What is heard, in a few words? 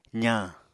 "zañ", Apatani